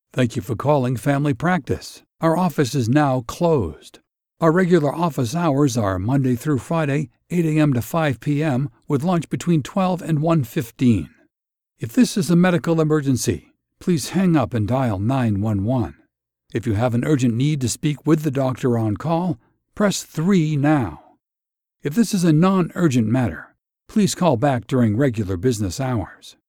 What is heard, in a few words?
ON HOLD